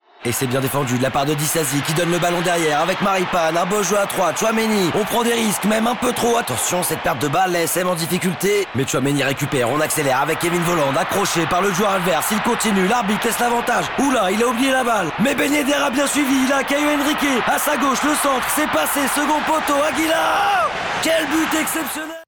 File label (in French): COMMENTATEUR FOOT